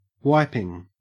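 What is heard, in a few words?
"wiping", Australian English; pronunciations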